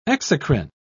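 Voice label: "exocrine", British English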